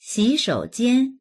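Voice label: "xi3shou3jian1", Mandarin Chinese; 洗手间; トイレ; dictionary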